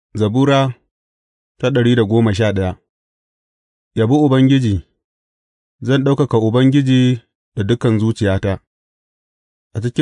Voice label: hausa bible drama, psalms; hausa bsn nt drama